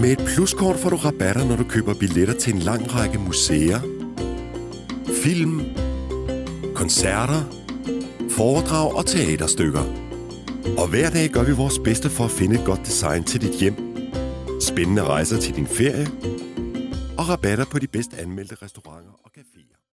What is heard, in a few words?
male danish voice over talent upbeat